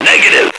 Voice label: negative, radio, male

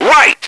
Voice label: right, radio, male

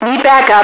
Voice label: "backup", radio female

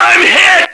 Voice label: im hit, radio, male

